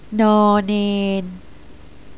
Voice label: "nen", Thai